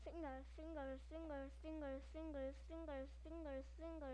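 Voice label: "singer", spoken word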